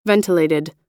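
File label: "ventilated", American English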